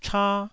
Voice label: "cha1", taiwanese